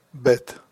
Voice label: "bet", hebrew